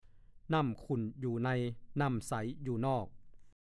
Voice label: "นาหลวง", ภาษาโคราช; 1. นาในที่สาธารณะ

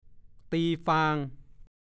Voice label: "ตีฟาง", ภาษาโคราช

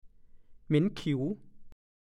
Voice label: "เหม็นขิว", ภาษาโคราช; เหม็นสาบ

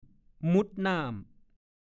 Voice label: "มุ่ดน่าม", ภาษาโคราช; ดำน้ำ บางทีเรียก ช่ะมุ่ดน่าม

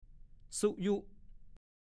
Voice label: ภาษาโคราช; ซุยุ

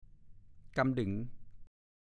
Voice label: "กำดึ๋ง", ภาษาโคราช

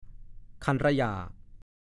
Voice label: "คันร่ะย่า", ภาษาโคราช; คันไปทั้งตัว

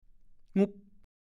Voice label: "หงุ๊บ", ภาษาโคราช; เงียบ

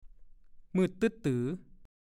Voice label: "มืดตึ๊ดตื๋อ", ภาษาโคราช; มืดมิด มืดสนิท มืดตะลึ้ดตึ๊ดตื๋อ ก็พูด

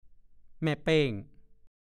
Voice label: "แม่เป้ง", ภาษาโคราช; สัตว์จำพวกแมลงที่โตกว่าเพื่อน โดยปริยายหมายถึง หญิงที่เป็นหัวหน้า

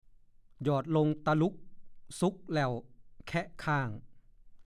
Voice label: หยอดลงตะหลุ๊กสุ๊กแล่วแค่ะข้าง, ภาษาโคราช; (ปริศนาคำทาย) ขนมครก

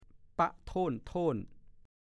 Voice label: "ป๊ะโท่น ๆ", ภาษาโคราช

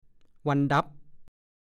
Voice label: "วันดั๊บ", ภาษาโคราช; วันแรม ๑๕ ค่ำ วันสิ้นเดือนตามจันทรคติ

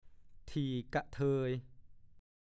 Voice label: "ที่กะเทย", ภาษาโคราช; ที่ดินที่มีสภาพดินปานกลาง กล่าวคือ จะว่าดินดีก็ไม่ใช่ดินเลวก็ไม่เชิง; พจนานุกรมภาษาโคราช

